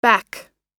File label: "back", us